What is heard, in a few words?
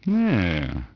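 male wow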